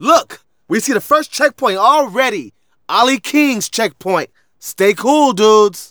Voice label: Narration